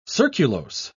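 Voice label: "surculose", British English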